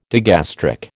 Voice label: "digastric", American